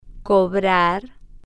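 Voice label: "cobrar", slow, spanish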